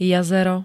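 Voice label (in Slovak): "jazero", Slovak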